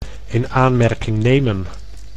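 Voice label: "in aanmerking nemen", Dutch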